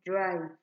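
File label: "drive", Nigerian Pidgin, female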